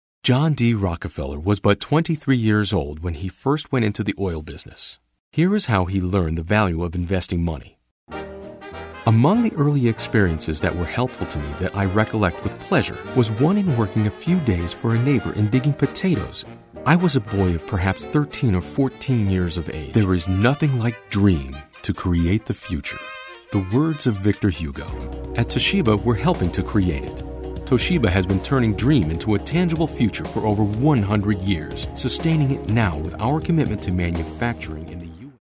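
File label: American English, male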